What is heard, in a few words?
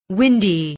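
"windy", English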